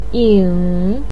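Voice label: "ying3" Chinese syllable, pinyin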